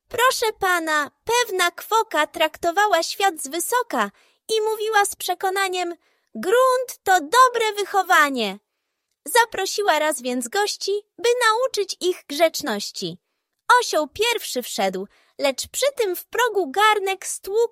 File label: audiobook; Kwoka; Jan Brzechwa